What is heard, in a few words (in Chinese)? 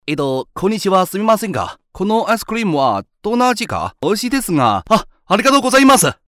日语年轻男广告台词こんにちは